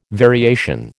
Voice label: "variation", American